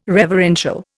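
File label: "reverential", Indian English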